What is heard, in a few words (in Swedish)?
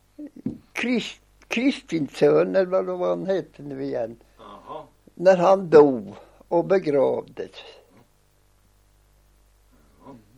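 Kisa Östergötland, dialekter; Folkminnen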